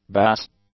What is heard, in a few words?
"bass", English; nouns